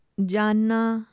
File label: "jaanaa", Urdu; know